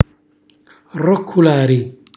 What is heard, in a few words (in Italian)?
"rrocculari", Calabrese; sdraiarsi, stendere; cucina calabrese